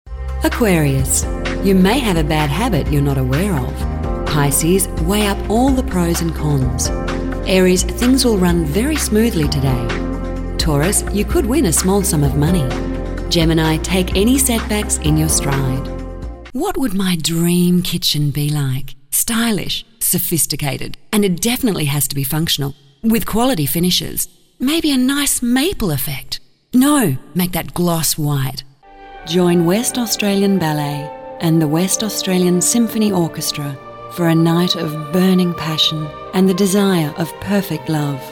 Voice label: Character; voice over artist